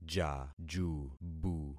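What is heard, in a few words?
"jajubu", concatenated syllables; Affixing experiment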